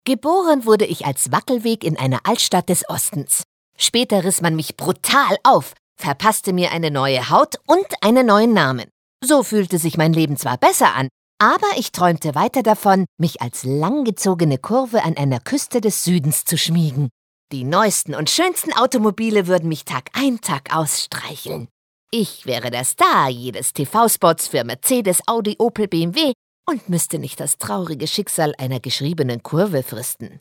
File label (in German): Schauspiel Frech